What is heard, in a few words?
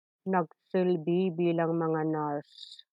Tagalog